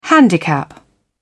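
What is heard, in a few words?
"handicap", British English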